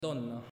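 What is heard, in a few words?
"Donna", Italian, male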